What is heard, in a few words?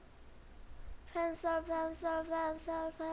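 "pencil", spoken word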